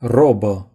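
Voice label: "robe", Romanian; gcompris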